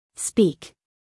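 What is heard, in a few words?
"speak", American English female; everyone-can-use-english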